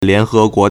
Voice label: "lian2he2guo2", Chinese

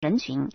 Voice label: "ren2 qun2", Chinese

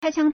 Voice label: "kai1qiang1", Chinese

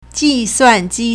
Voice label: "ji1 suan4 ji4", Chinese

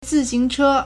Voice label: "zi4xing2che1", Chinese

